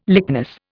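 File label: "lychnis", Indian English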